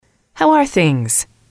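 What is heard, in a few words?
how are things, English, female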